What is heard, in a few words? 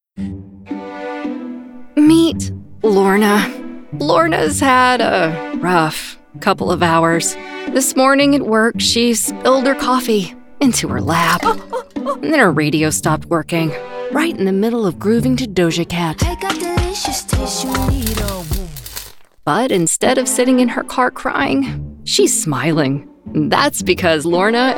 Explainer; GHIRADELI